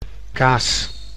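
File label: "kaas", Dutch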